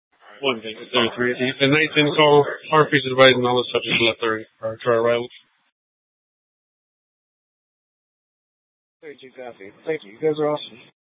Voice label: Mesa PD Central Dispatch, scanner; TOPAZ Regional Wrlss Cooperative (TRWC); Mesa Simulcast